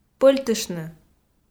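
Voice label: "пӧльтыштны", Komi, female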